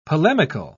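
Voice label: "polemical", British English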